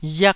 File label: "riak1", taiwanese